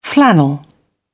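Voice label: "flannel", British English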